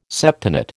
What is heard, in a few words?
"septenate", American